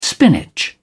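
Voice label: "spinach", British English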